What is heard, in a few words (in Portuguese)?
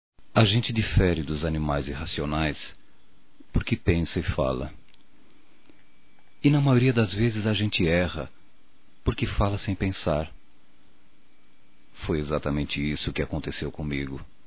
DESCULPAS ROMÂNTICA, Telemensagens, masc